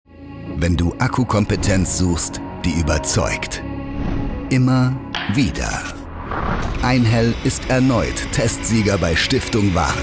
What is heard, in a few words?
GERMAN COMMERCIAL; THE VOICEOVER GALLERY; GERMAN COMMERCIAL REEL